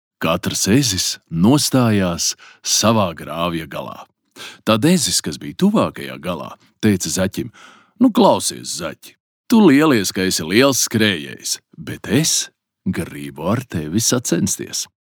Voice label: Pasaka LV

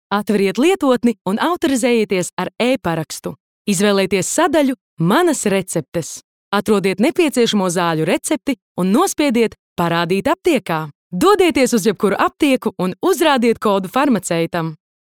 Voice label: Infografika LV

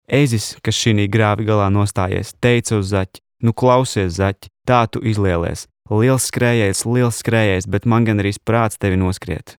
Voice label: lv

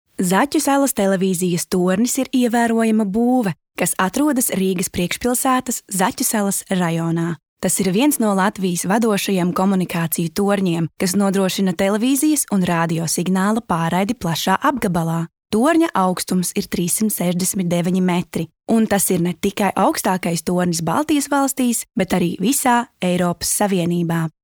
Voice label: LV Infografika

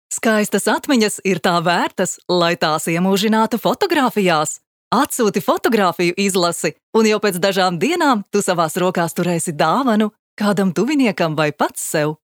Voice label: Reklāma

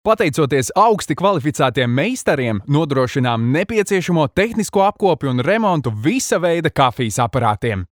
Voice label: lv

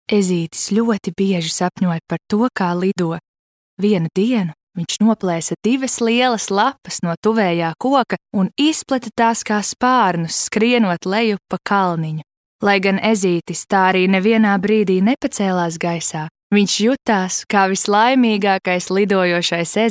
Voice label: Pasaka LV

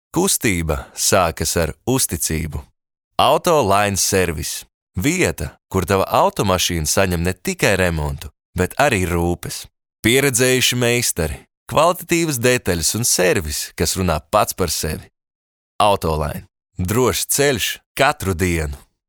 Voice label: Reklama

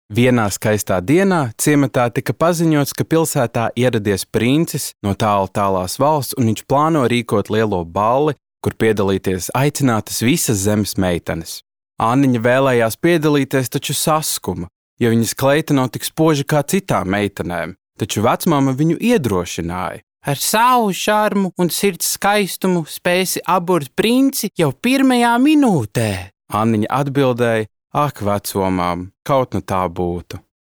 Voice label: LV Pasaka

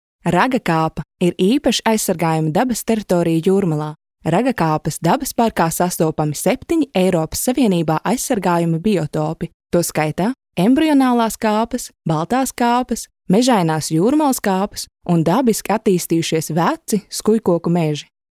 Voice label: Infografika LV

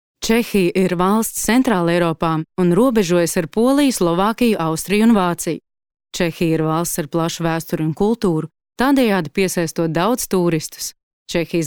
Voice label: Stāstījums

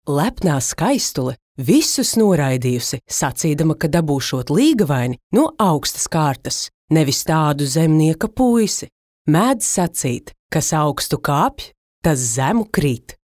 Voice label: Pasaka LV

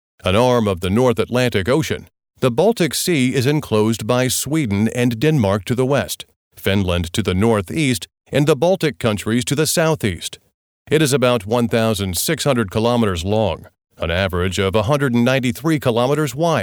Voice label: Stāstījums

